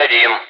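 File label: Speech, Russian, air traffic control; Digits; DCS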